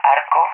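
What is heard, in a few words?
"Arco", English, Call Sign; DCS; Allied Flight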